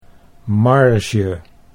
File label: "marge", Dutch